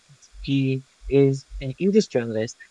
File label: Voice Acting es M